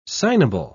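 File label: "signable", English, British English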